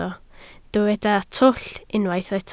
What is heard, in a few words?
"twll", Welsh